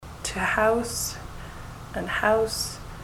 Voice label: to house house, ontario; mouth set

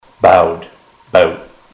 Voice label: bowed bout, ontario

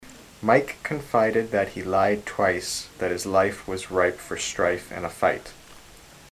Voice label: mike lied twice, ontario

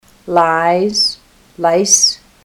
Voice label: lies lice, ontario

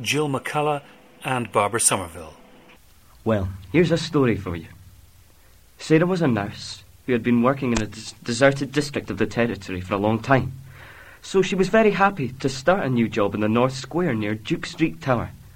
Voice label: scotland, dialects; speech

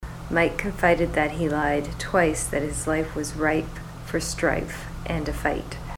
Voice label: mike lied twice, ontario

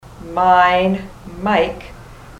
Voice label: mine, ontario

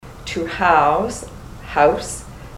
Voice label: to house house, ontario

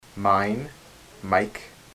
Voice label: mine, ontario

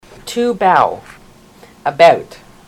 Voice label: to bow about, ontario

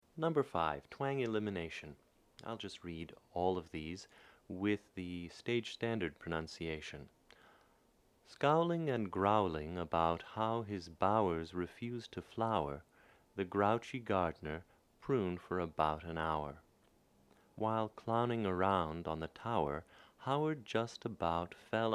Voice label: rp, phonetics

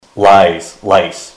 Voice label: lies lice, ontario

